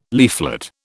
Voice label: "leaflet", British